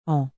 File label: "on", French; Canadian French; (one)